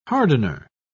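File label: "hardener", British English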